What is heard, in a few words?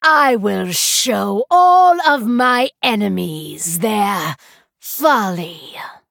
Female Night Elf Bark; Lady Anacondra